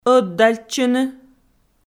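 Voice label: "ӧдальтчыны", Komi, female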